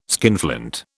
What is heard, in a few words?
"skinflint", British